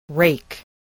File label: "rake", english